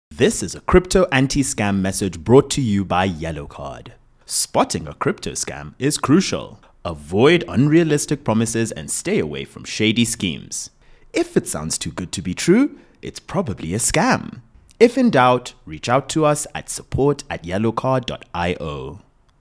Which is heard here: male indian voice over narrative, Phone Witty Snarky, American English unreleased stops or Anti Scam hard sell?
Anti Scam hard sell